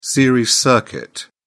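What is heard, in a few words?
"series circuit", British English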